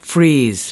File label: "freeze", us